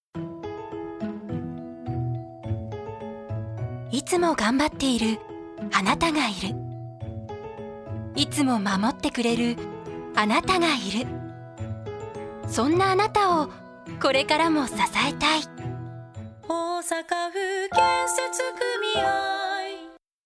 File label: radio; osaka